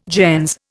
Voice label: "gens", Indian

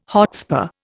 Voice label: "hotspur", Indian

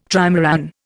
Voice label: "trimaran", Indian

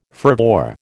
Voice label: "fervour", American

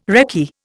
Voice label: "recce", Indian

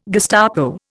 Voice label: "Gestapo", Indian

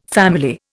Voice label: "family", Indian

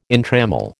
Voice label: "entrammel", American

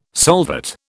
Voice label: "solvate", British

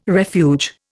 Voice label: "refuge", Indian

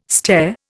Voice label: "stair", Indian

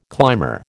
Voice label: "climber", American

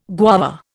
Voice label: "guava", Indian

